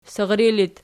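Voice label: sirri locative, Archi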